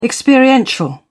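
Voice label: "experiential", English, British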